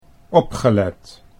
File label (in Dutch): "opgelet", Dutch